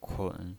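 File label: "kun", pinyin, Mandarin Chinese, third tone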